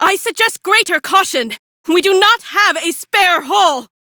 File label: Female; Warframe; Railjack; Cephalon Suda